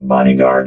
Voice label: "bodyguard", robot vox